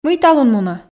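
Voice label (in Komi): Komi, мый талун мунӧ, female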